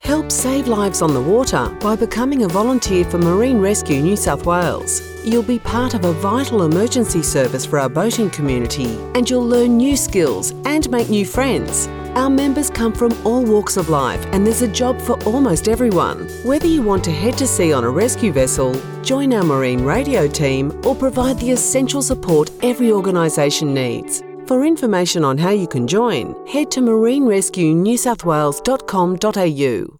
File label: Recruitment English music